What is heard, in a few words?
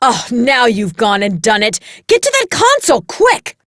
Spy Alarm Trigger, Dialog; Warframe; Spy Quest; Maroo